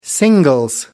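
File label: "singles", British English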